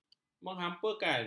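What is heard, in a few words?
"menghampakan", Malay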